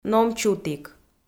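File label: "номчутік", Komi-Zyrian, female; audio dict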